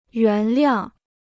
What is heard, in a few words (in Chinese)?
"原谅", Chinese